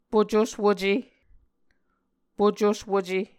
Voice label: "pocoswuce", Muscogee